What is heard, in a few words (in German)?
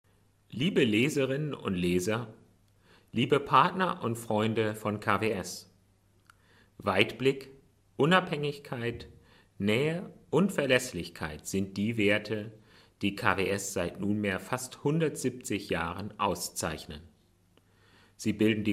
Aufnahme deutsch